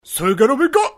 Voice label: "sekerebika" voice karate figth manga; videogame; Sound-Graph